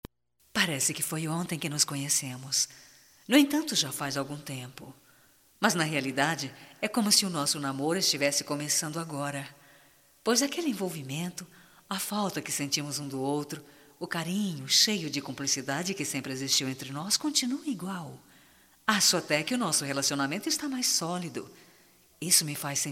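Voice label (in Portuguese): DECLARACAO PARA ESPOSO, female, Telemensagens, Só Voz; Telemensagens 2017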